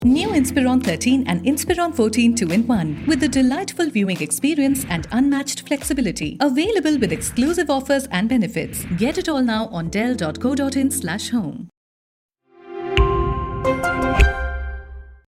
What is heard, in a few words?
ADVERTISEMENT PROMO ENGLISH